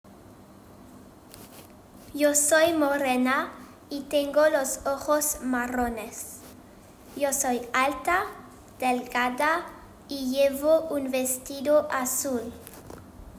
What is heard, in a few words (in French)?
Enregistrement en espagnol; description physique